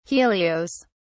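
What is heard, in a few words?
"helios", British English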